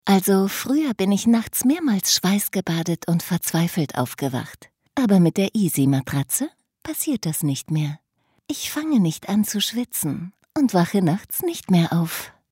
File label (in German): freundlich werblich